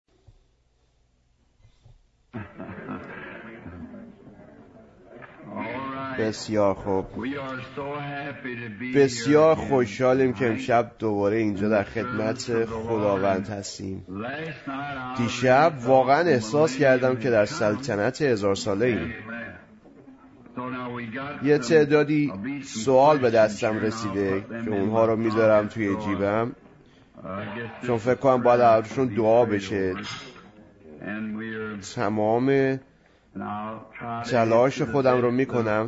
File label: Farsi Voice Over; Pergamean Church Age